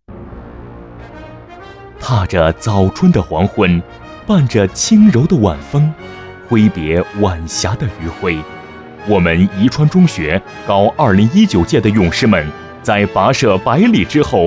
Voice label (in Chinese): 专题 学校 沉稳, 男国; 宜川中学百里远足